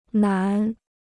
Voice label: "南", Chinese